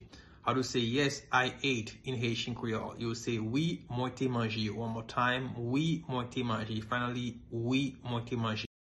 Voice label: Wi mwen te manje pronunciation, Haitian Creole; Yes I ate in Haitian Creole